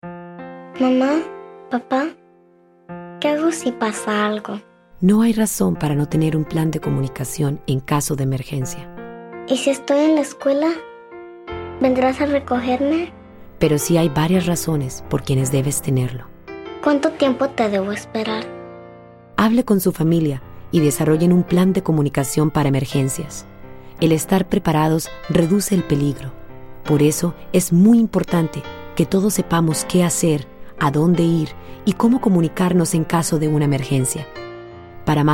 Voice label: Radio Spanish